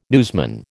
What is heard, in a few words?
"newsman", American